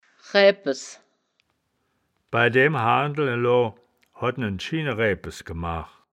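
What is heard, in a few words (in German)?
"raebpes", wittlicher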